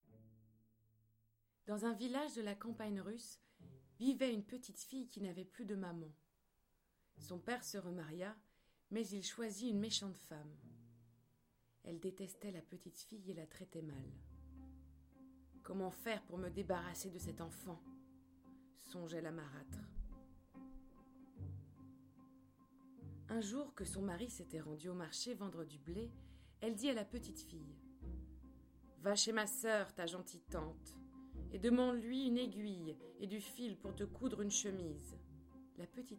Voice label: Narration